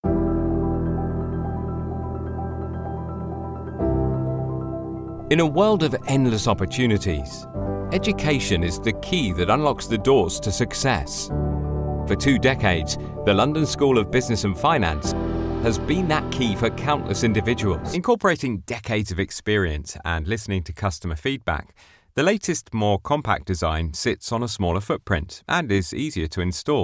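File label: British English Corporate; GoLocalise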